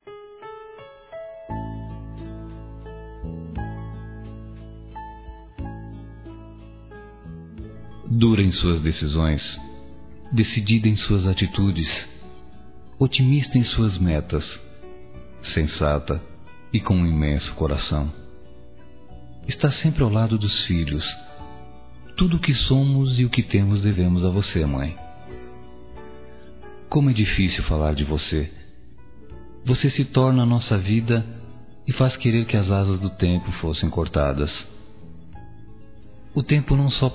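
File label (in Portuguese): MÃE, male, Telemensagens Dia das Mães; Masc; Telemensagens 2017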